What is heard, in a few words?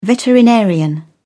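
"veterinarian", British English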